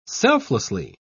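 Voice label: "selflessly", British English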